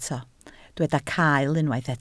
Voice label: "cael", Welsh

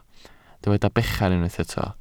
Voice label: "bechan", Welsh

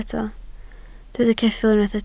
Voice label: "ceffyl" Welsh

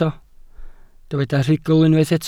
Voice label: "rhugl", Welsh

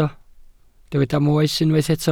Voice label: "moes" Welsh

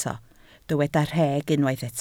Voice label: "rheg", Welsh

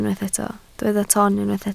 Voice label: "ton" Welsh

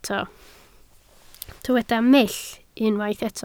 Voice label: "mull", Welsh

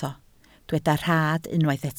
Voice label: "rhad", Welsh

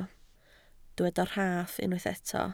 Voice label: "rhaff" Welsh

